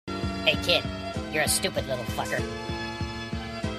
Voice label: kid

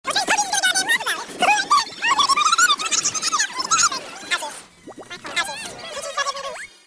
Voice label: dude very mad

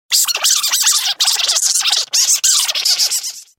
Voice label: donald angry

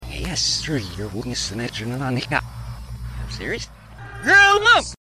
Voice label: mumbler; reverse

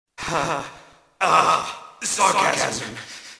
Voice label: sarcasm

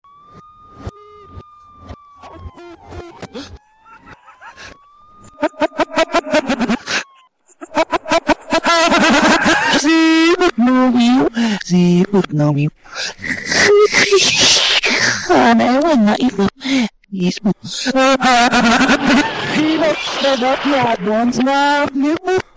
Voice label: uh oh; reverse

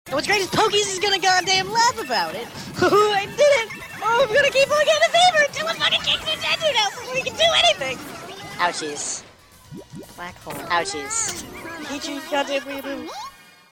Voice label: dude very mad

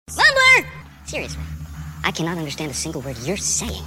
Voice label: mumbler

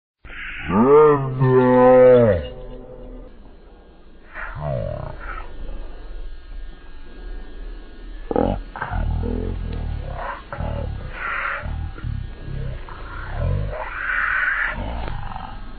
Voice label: mumbler

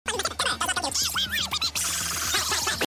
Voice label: donald angry

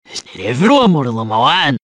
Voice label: motivated; reverse

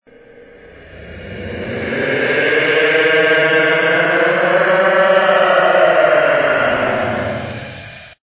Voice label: hey long; reverse

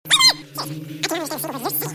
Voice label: mumbler

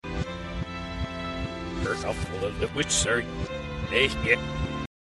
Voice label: kid; reverse

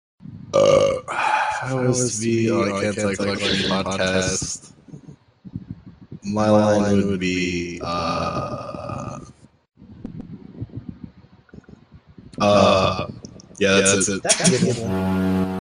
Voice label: podcast

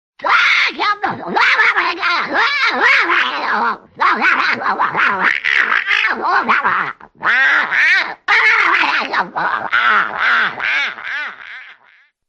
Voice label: donald angry

